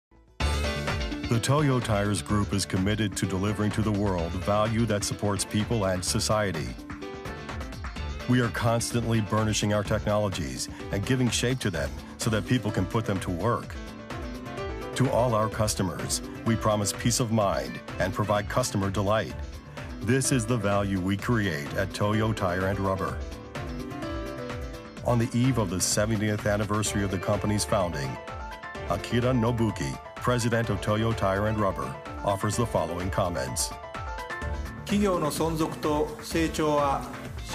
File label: Corporate Presentation; Toyo Tires